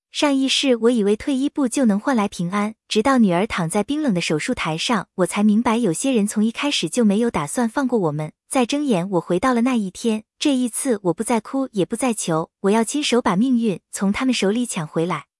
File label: chinese audiobook original